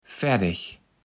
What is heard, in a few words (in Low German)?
"ferdeg", kaevels platt